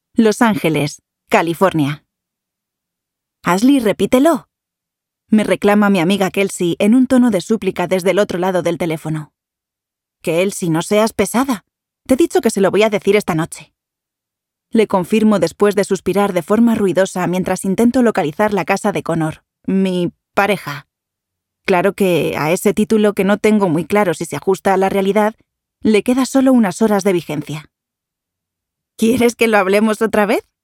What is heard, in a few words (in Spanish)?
audiolibro; cuando somos enemigos